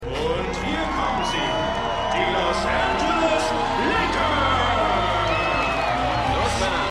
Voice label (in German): Stadionsprecher; Winning Time